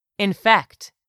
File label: "INFECT", english; 3000 words